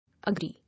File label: "Agree", English